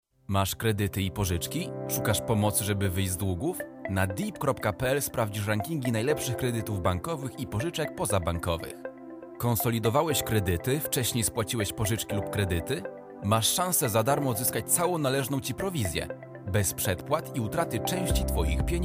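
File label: Polish, male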